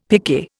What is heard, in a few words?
"picquet", Indian; Dictionary